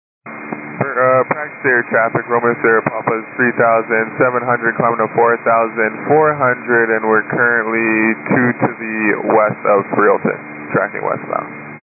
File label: 122 925MHz, scanner radio